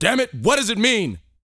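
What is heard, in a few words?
talk female, sci-fi; 5 SCI-FI CHZ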